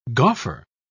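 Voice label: "gauffer", British English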